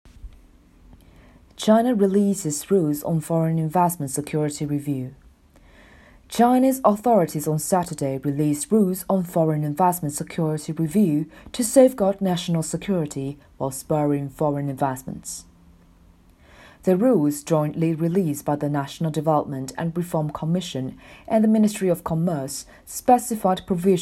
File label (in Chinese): 新闻播报英文